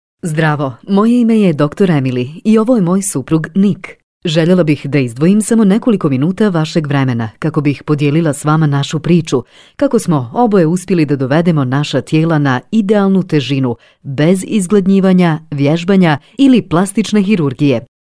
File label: Bosnian TV Narration